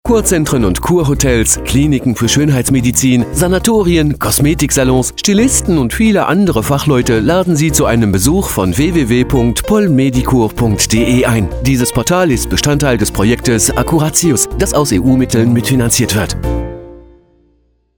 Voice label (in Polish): spot niemcy